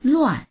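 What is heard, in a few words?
"luan4", Mandarin Chinese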